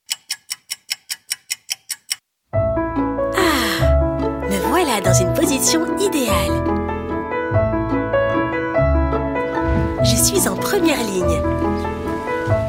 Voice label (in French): Voix off film publicitaire internet JOAILLERIE; 24h avec votre COLLIER